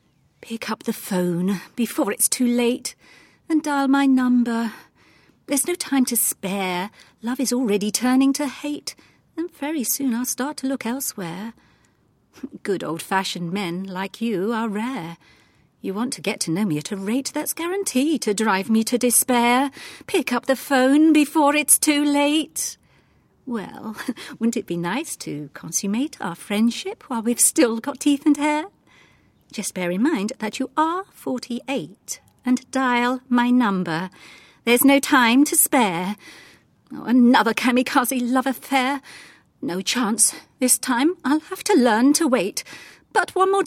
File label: message, Voiceover